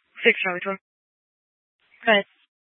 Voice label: Mesa PD Dispatch; Fiesta